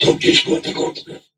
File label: Patrol, Crewmen, en